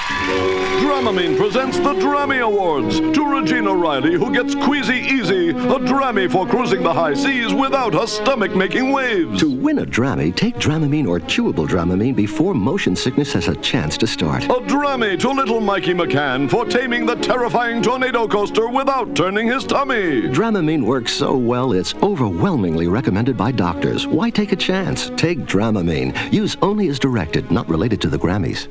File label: commercial dramamine; Bluegrass